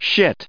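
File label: "shit", US English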